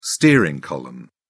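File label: "steering column", British English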